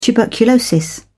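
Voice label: "tuberculosis", British English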